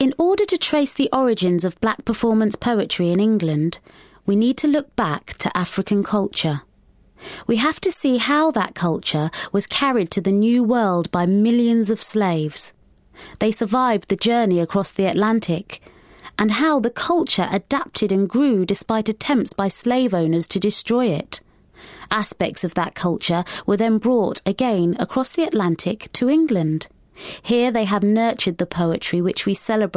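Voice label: narration; 20s-30s. Male. London. Home Studio.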